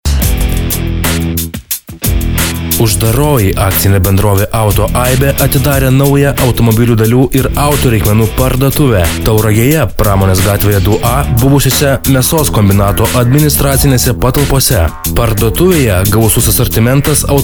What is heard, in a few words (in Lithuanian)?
reklama